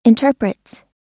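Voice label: "interprets", US English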